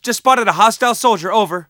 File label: spot; bf3